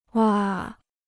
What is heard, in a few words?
"挖", Chinese